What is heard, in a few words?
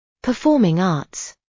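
"performing arts", British English; The arts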